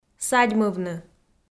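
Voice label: "садьмывны", Komi, female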